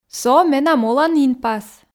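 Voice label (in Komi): со менам олан инпас, Komi, female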